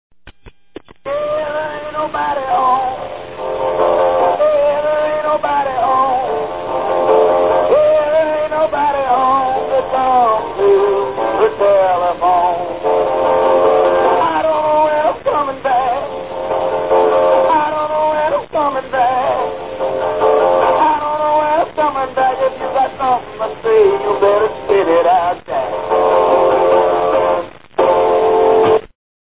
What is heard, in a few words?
answering machine